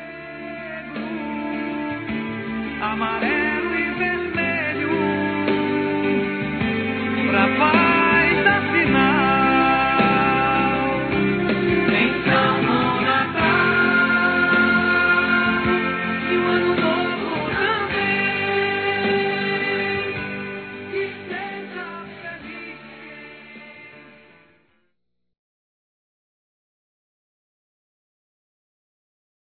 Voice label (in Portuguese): NATAL P FILHO, fem; Telemensagens; Natal e Ano novo